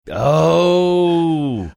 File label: drawn out ohh